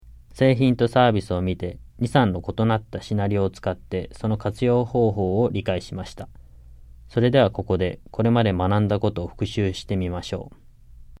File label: JAPANESE; delltranslations